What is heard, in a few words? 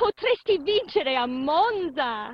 italian voice